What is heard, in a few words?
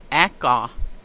where, language class dictionary